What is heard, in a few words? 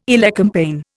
"elecampane", Indian